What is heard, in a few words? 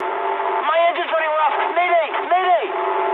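English damaged engine mood high; War Thunder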